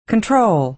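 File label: "control", American English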